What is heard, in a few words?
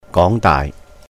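"Góng Daaih", Cantonese; Hong Kong University; 港大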